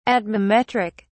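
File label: "atmometric", English, uk British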